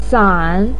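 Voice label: "san", Mandarin pinyin, tone 3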